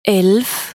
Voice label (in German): "elf" deutsch